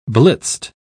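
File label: "blitzed", British English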